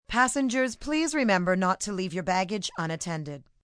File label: No Leave Baggage, Airport, PA, announcements, female